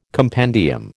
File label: "compendium", American